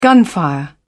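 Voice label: "gunfire", British English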